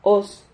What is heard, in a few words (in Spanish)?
"hoz", Silabas